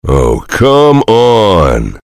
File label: soldier jeers